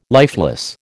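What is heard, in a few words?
"lifeless", American English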